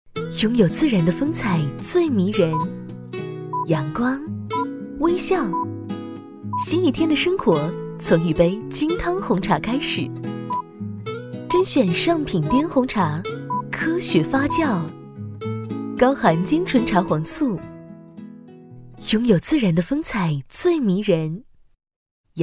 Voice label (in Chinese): 女 清新甜美 红茶广告